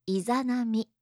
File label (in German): "izanami", japanische maedchennamen